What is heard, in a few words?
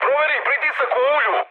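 Serbian damaged oil mood high; War Thunder; speech srb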